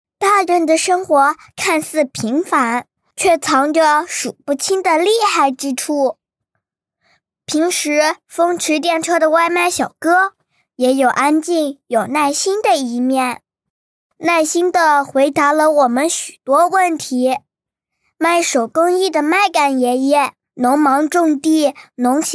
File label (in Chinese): 女 男真童; 大人的生活; 真童配音